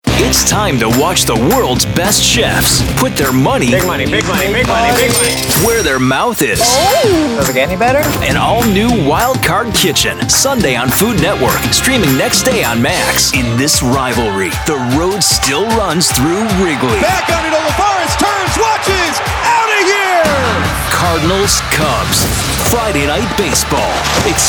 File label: voiceover, Commercial, Men